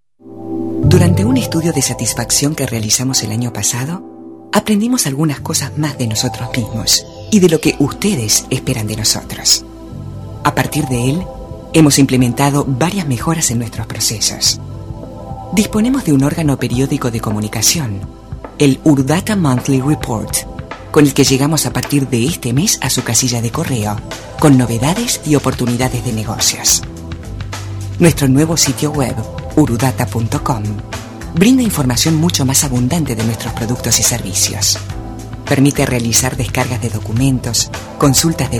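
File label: spanish female voice Uruguay Argentina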